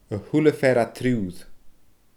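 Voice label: "a h uile fear aa triuuir", Scottish Gaelic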